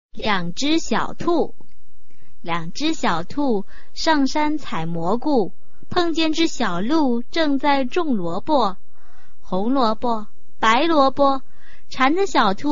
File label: chinese tongue twister